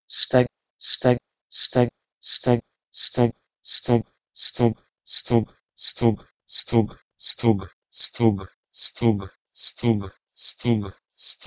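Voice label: Proto-Indo-European "steg" to Ukrainian "stih"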